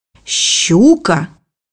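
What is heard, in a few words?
"pike", Russian; bukvar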